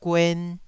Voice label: "guen2", taiwanese